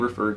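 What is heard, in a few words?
"refer", American English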